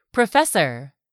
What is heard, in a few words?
"professor", english; 3000 words